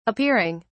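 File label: "appearing", British English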